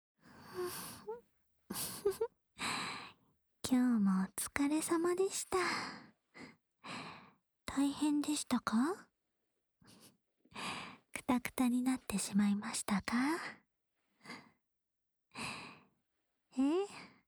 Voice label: 安眠用 添い寝（左耳）

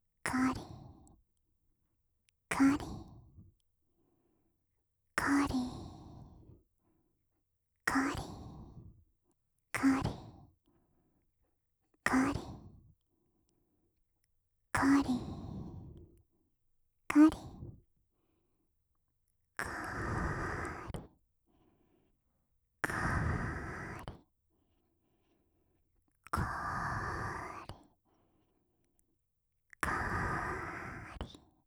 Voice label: ロリカリカリゆっくり, オノマトペ 左耳; 乳首オナ指示でカリカリタイムちょっぴりハードモード

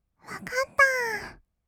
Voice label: "わかったー", kawaii voice; 1200 kawaii voice!!; 色々なワンショット

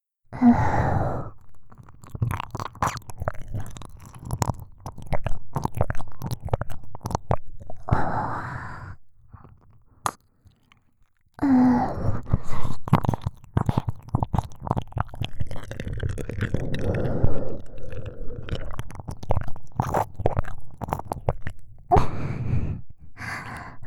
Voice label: とろとろ生耳舐め・左右同時責め、カウントダウン射精（カウントダウンのみ・囁きブースト）

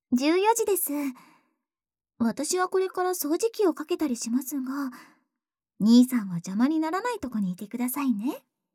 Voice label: 14時, 時報ボイス

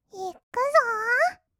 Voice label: "いくぞ", kawaii voice; ASMR; 色々なワンショット

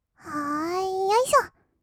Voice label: あーよいしょっ, kawaii voice; 音ゲー

